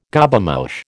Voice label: "Gobemouche", American

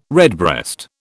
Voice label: "redbreast", British

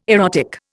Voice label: "erotic", Indian

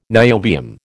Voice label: "niobium", American

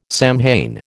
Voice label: "Samhain", American

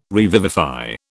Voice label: "revivify", British

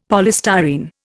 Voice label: "polystyrene", Indian; Dictionary

